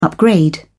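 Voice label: "upgrade", British English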